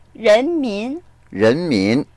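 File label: "ren2min2", Mandarin Chinese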